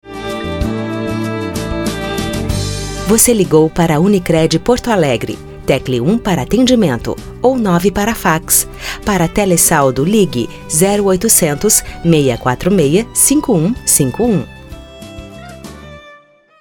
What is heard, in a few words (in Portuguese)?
Espera telefonica; Unicred